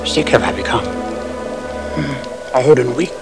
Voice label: old and weak